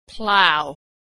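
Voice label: "plough", American English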